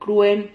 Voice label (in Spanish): "cruen", Silabas